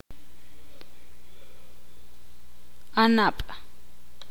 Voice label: "hermana", ixil

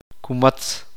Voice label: uspanteko serpiente

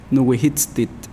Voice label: Nawat palabras unia; pipil

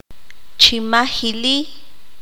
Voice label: "escasearse", qanjobal

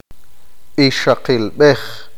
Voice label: "esposa", qeqchi

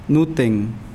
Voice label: Nawat palabras boca; pipil

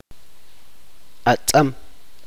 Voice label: "sal", chuj

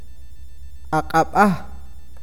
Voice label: mano, awakateko

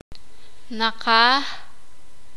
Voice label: "cerca", sakapulteko

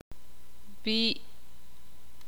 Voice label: "cabeza", ixil

